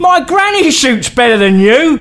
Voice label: Taunt, male; svencoop